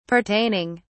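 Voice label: "pertaining", British English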